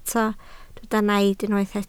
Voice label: "naid", Welsh